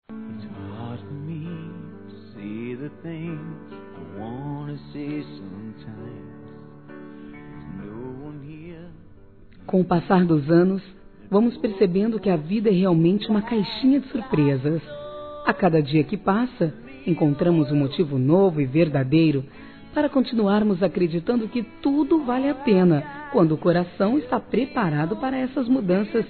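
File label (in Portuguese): GESTANTE-Feminino; Telemensagens; Telemensagens 2017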